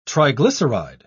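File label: "triglyceride", British English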